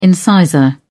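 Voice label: "incisor", British English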